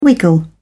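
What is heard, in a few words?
"wiggle", British English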